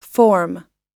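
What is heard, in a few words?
"form", US English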